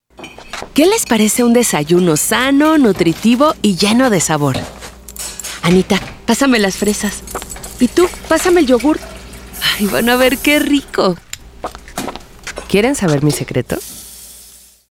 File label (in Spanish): Mama Real Natural